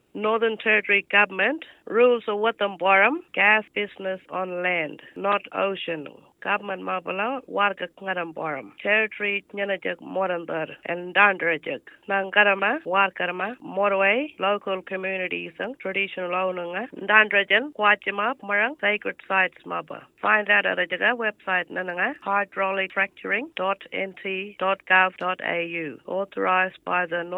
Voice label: eastern arrernte